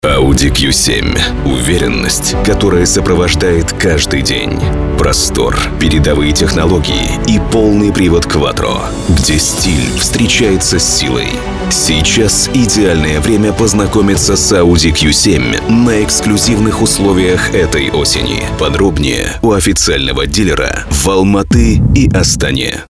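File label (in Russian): Радиоролик автомобиля; Audi Q7; RUS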